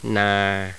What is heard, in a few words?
mid falling, thai tones